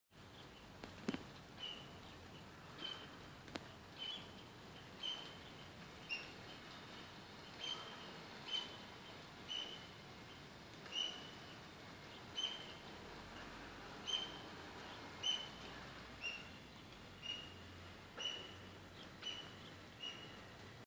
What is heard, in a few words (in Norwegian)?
tale